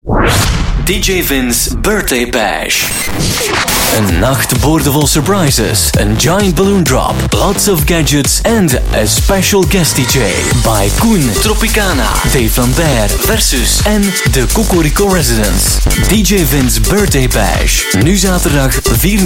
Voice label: Flemish Club Commercial, Male

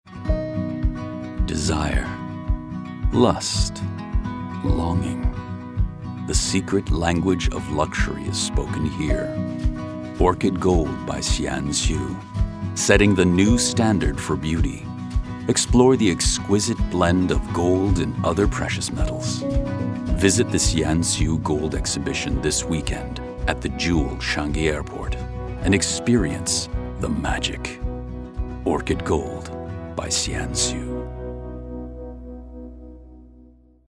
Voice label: US English Jewelry

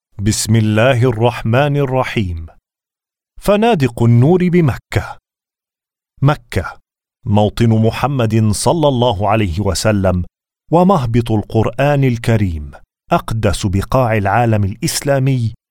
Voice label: Modern Arabic

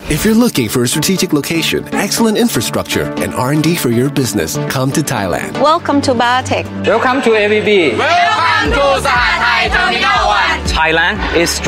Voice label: Asian English; A Great Place For Business

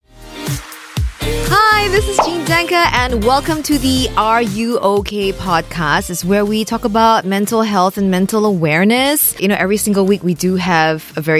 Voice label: Asian English Podcast Snippet; RUOK

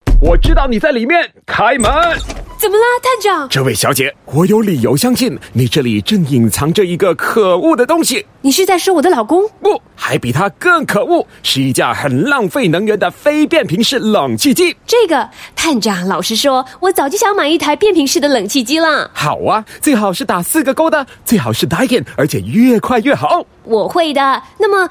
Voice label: Mandarin, China Female; Daikin Aircon

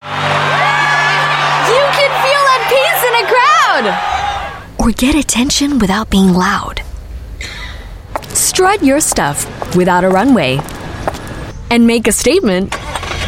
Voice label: Asian English, Radio; Audi Q3

